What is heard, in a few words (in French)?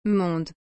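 "monde", French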